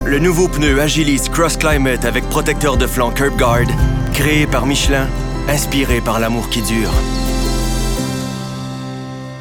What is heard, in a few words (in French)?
Publicité; Michelin